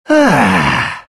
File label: happy; lone druid